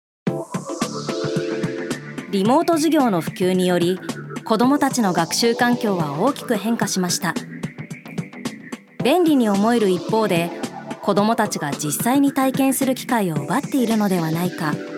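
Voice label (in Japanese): ナレーション, 女性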